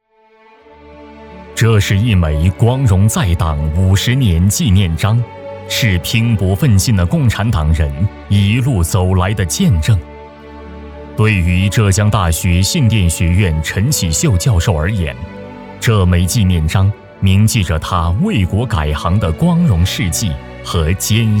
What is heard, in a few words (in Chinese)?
男国语